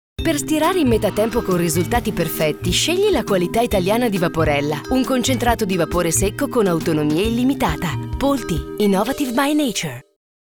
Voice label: spot